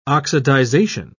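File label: "oxidisation", British English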